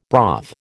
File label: "broth", American